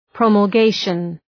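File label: "promulgation", English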